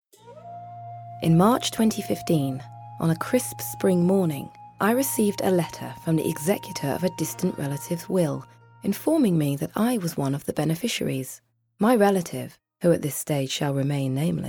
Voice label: english british audiobooks